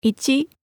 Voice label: zaehlen japanisch

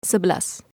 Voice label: zaehlen Indonesisch

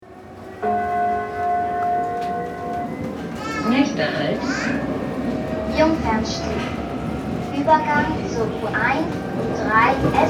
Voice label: u bahn durchsage

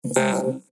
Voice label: zahlen englisch vocoder 2

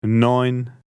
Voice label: zahlen deutsch maennlich 9

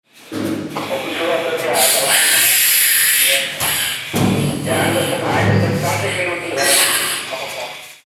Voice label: Feuerwehr Funk

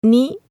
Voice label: zaehlen japanisch

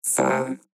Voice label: zahlen englisch vocoder 2

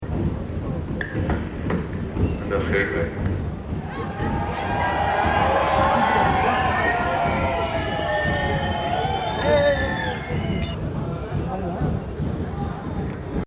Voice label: Durchsage Polizei

